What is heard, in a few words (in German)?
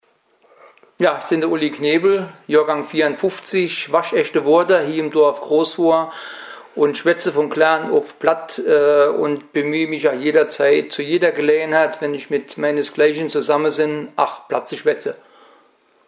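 vorstellung, O-Ton Hunsrück; Wohnroth; stellt sich vor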